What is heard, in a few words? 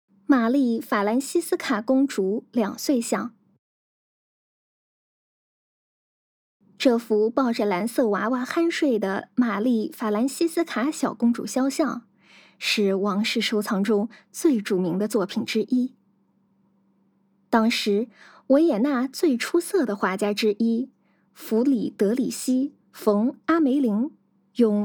Putonghua